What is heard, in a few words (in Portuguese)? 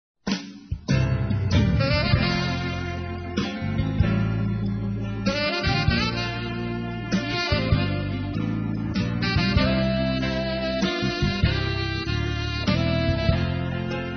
ANIV. ROMANTICO M